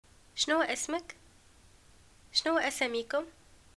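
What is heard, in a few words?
what is your name, Tunisian arabic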